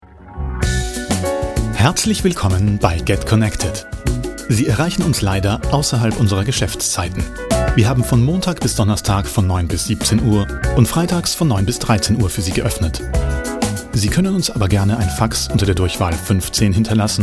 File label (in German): Sprecher Nacht